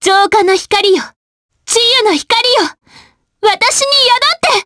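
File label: voice, Japanese; Frey; Skill7; kingsraid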